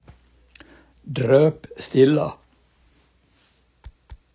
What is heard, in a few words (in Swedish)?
"drop stilla", absolut stilla, daladialekt; På gagnsmål säger man: / drôp stilla